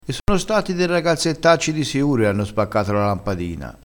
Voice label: "ragazzettaccio", crespinese

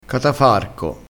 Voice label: "catafarco", crespinese

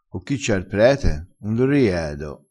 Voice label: "riiede", vocabolario dialettale

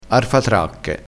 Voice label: "arfatracche", crespinese

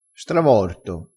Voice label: "stravorto", crespinese

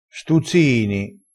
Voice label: "stuzziini", crespinese

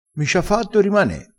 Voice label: "mi cia", crespinese

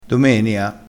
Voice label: "domenia", crespinese

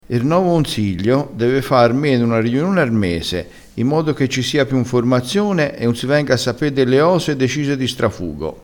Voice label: "di strafugo", vocabolario dialettale

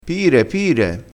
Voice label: "pire pire", vocabolario dialettale

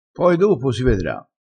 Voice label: "poi dopo", crespinese

